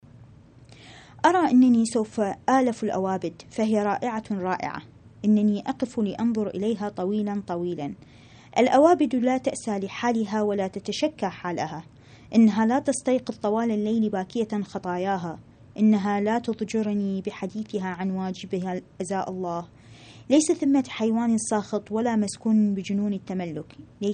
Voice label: arabic